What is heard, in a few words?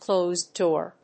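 "closed-door", English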